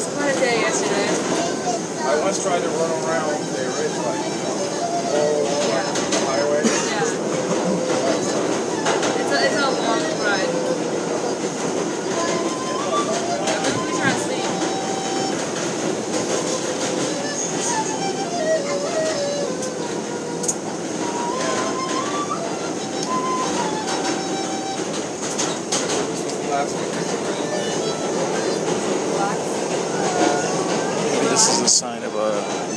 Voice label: Inane babble from a wildly annoying hipster on the train makes me murderous while a flute plays softly.